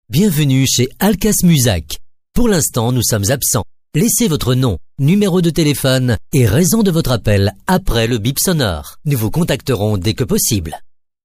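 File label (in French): french Belgian male IVR; voice demos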